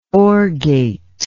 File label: "orgate", English word